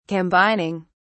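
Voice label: "combining", British English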